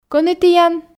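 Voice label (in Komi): кӧні тіян, Komi, female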